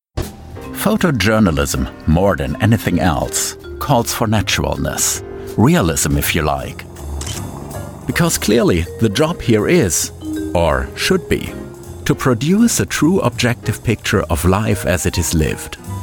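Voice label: German English, male, commercial, accent of germany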